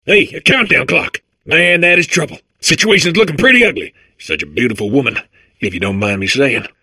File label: babble; portal2